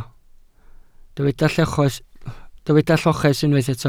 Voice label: "lloches", Welsh